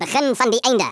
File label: "FIRST BLOOD", Africaan, Speech; Worms Armageddon